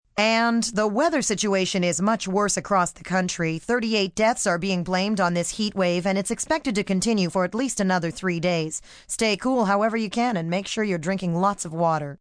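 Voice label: Heat Wave F, Broadcast, weather, female weather report, heat/humidity health advisory; female (2) weather report, heat/humidity health advisory with deaths reported, news (human voice speaking); VoiceBox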